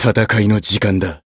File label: encount, jp; jade